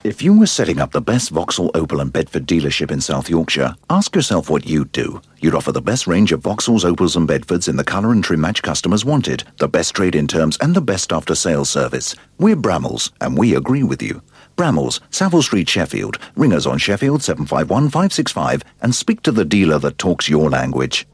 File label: Radio Hallam Advert; Bramalls Vauxhall Bedford Opel